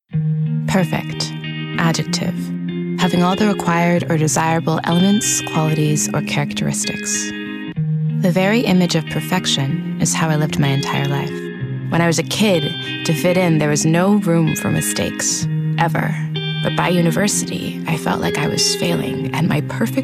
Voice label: narrative

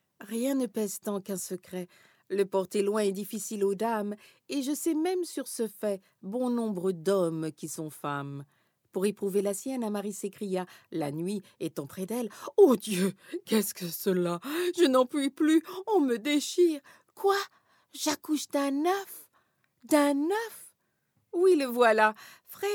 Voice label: FR, french

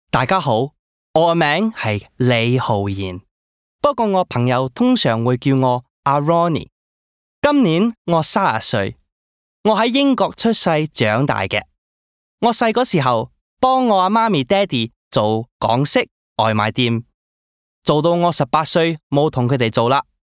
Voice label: Cantonese

